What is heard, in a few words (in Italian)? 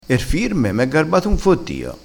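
"fottio", vocabolario dialettale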